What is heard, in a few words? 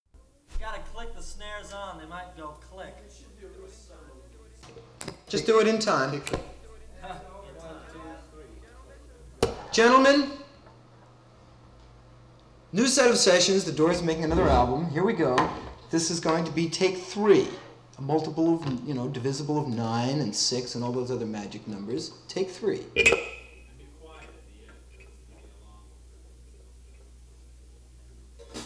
false starts & studio dialogue